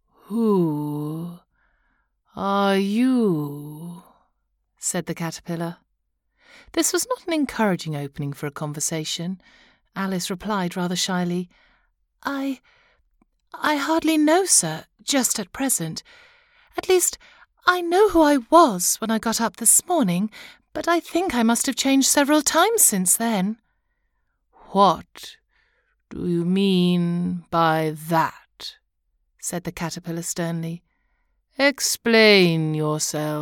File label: narrative childrens